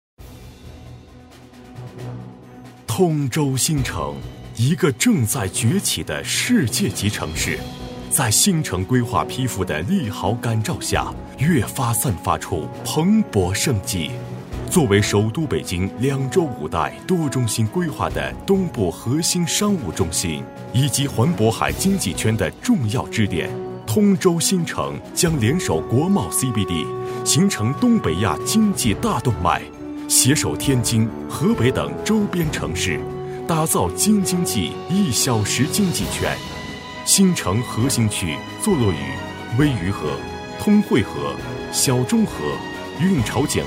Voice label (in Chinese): 男 专题; 通州新城